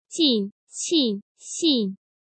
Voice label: jin qin xin 进 沁 信, Chinese Pinyin

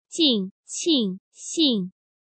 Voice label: jing qing xing 竟 庆 性, Chinese Pinyin; J, Q, X Sound

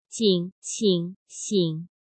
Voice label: jing qing xing 井 请 醒, Chinese Pinyin

